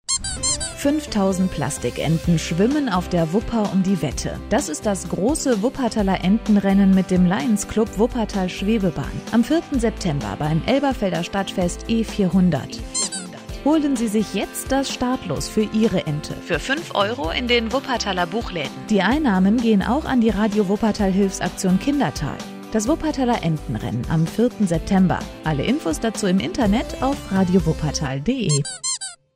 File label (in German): Radio Entenrennen Trailer; Radio Wuppertal